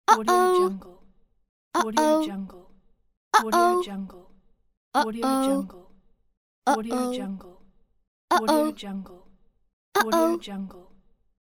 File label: girl uh oh trouble pack